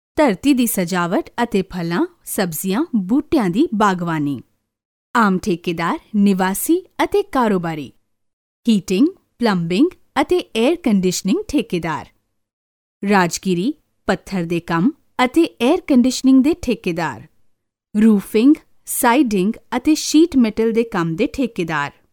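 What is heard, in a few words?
Punjabi, female, IVR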